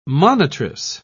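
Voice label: "monitress", English, British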